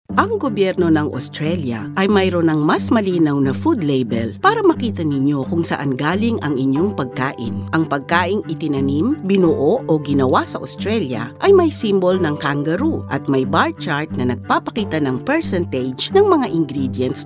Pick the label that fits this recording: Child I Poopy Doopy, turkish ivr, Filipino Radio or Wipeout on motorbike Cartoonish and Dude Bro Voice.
Filipino Radio